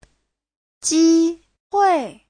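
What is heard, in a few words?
"jīhuì", Mandarin Chinese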